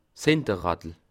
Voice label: "hinter", Alsace

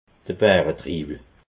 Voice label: "baretriiwel", alsace

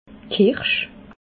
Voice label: "kirsch", alsace

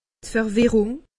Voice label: "verwirrung", alsace; medecine

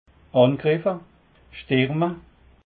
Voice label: "stuerme", alsace

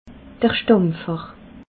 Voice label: "stampfer", alsace

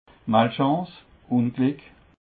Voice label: "malchance", alsace

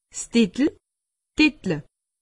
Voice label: "dittel", alsace; medecine

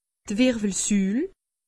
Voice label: "wirwelsul", alsace; medecine

